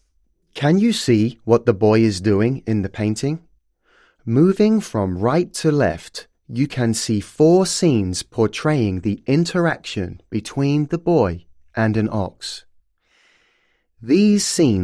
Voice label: English